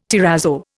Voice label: "terrazzo", Indian

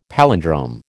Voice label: "palindrome", American

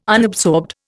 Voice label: "unabsorbed", Indian

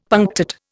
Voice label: "punctate", Indian; Dictionary

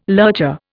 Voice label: "lurcher", Indian

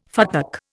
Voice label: "futtock", Indian

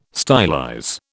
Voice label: "stylize", British

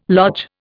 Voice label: "lodge", Indian

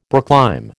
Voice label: "brooklime", American

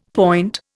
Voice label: "point", Indian; Dictionary

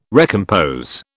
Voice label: "recompose", British

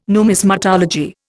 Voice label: "numismatology", Indian

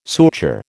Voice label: "suture", American

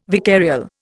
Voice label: "vicarial", Indian

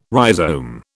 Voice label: "rhizome", British

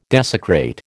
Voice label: "desecrate", American

